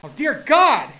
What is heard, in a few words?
"dear god", hostage reactions